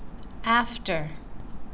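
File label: "after", Canadian, female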